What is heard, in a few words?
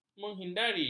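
"menghindari", Malay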